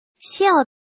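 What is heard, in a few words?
"xiao4", Mandarin; 肖; 第四声; シャオは第四声なので、思いっきり下に下げます。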